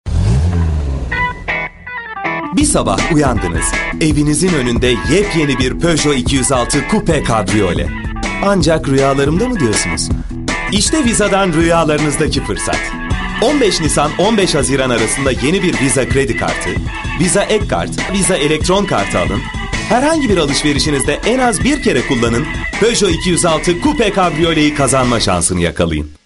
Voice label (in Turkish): enerjik esprili, seslendirme